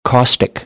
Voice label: "caustic", American English; ɑː - ɔː